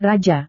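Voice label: "raja", Indonesian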